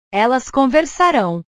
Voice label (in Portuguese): "elas conversarao", portugues; portugues/verbos